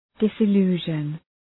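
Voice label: "disillusion", English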